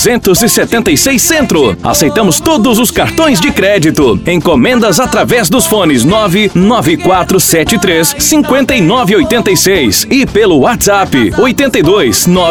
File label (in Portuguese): Spot casa dos bolos; spots